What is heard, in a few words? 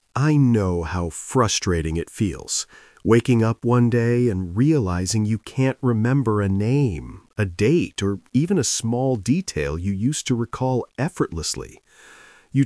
Encladus male; Pineal Guardian